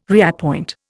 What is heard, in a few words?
"reappoint", Indian